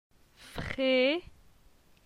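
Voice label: "Fre", French; phonetique; la syllabe e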